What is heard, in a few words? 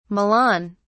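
"milan", British English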